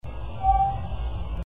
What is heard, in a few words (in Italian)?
voce indefinita di donna